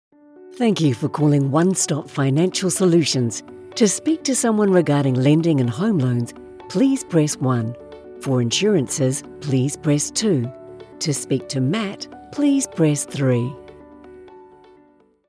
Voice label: VO Financial Company IVR with music